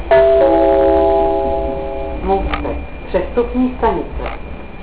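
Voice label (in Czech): příjezd, Vlaková hlášení, Pražské metro; Můstek, přestupní stanice.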